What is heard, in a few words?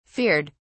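"feared", British English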